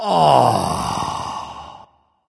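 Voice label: sigh, dragon; fafnir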